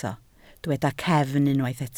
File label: "cefn", Welsh